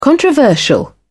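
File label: "controversial", British English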